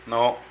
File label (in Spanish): Con, Zapoteco de Petapa; Vocabulary